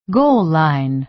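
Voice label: "goal line", American English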